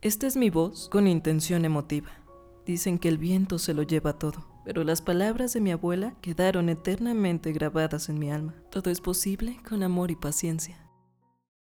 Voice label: Emotiva Musicalizada

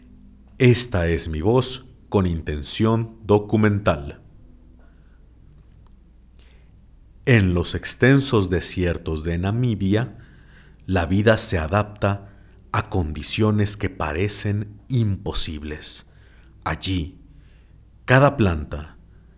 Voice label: Documental, locutor

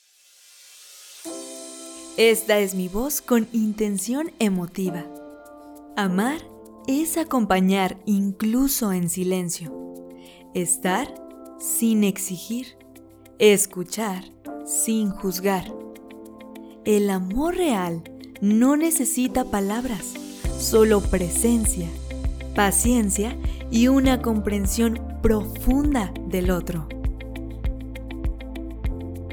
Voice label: Emotiva Musicalizado

